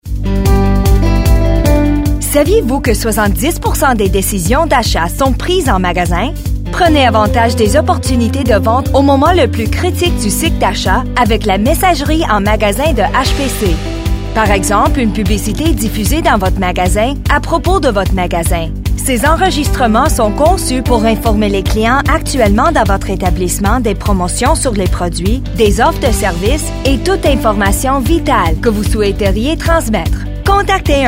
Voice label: French